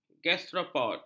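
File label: "gastropod", Malay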